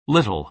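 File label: "little", American English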